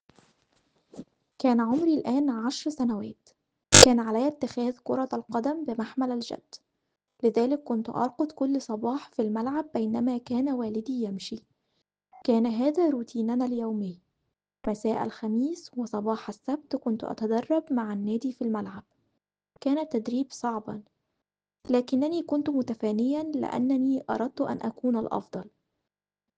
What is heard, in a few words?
Arabic